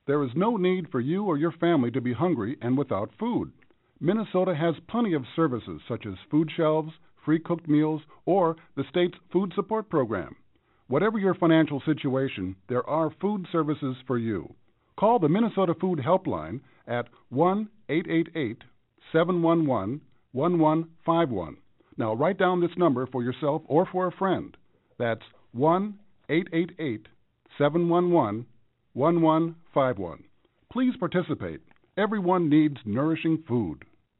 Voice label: eng hunger, psa

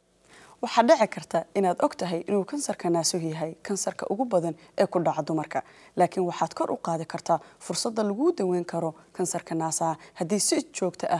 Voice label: Get Checked Somali

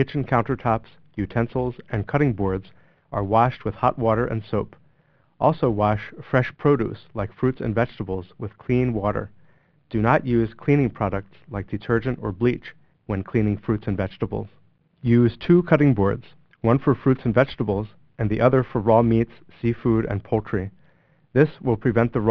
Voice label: Food Safety English, phone

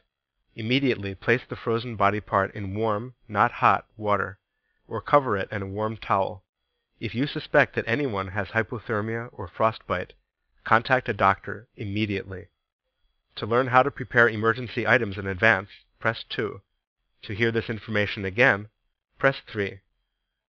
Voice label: Extreme Cold - English

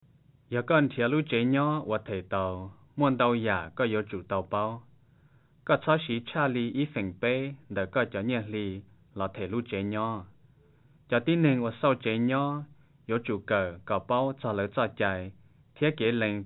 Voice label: hmong housing, psa

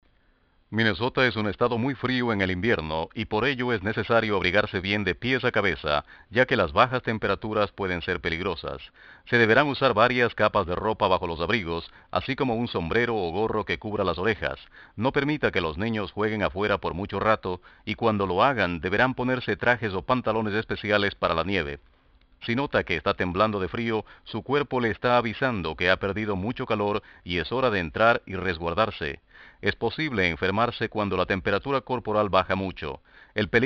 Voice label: Extreme Cold, Spanish

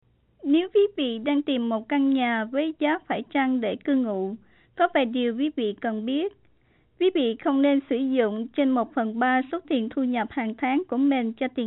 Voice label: viet housing, psa

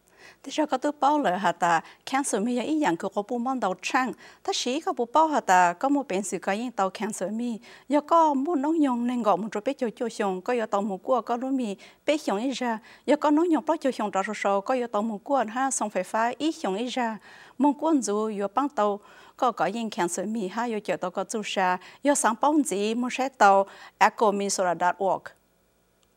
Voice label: Hmong; Get Checked

